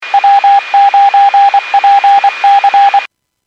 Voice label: Skywarn 146.67; Stevens Point

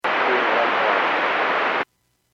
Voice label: Airports 122.7, Radio; KSTE

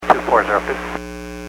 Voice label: Aviation 121.5 Emergency

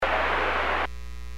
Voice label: Aviation 121.5 Emergency

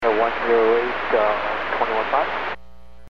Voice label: Aviation 121.5 Emergency

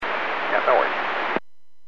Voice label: Aviation 121.5 Emergency

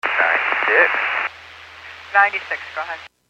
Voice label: Fire Dispatch

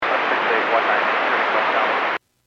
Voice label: Airports 122.7, Radio; KSTE

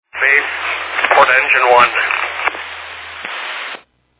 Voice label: Fire Dispatch

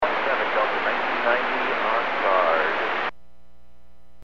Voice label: Aviation 121.5 Emergency

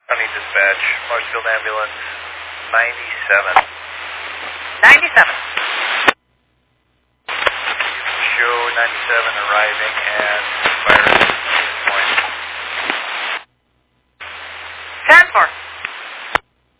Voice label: Fire Dispatch

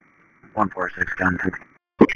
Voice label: Police Sheriff Dispatch

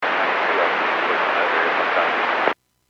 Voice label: Aviation 121.5 Emergency

